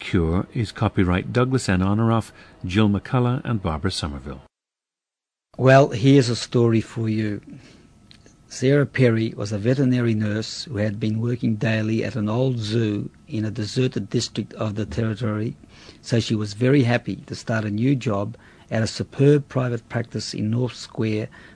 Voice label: australia